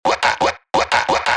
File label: Rap 174 BPM